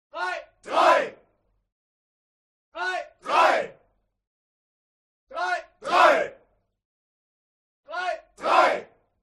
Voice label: CROWD Military Shout German "Three"; HUMAN; smartsound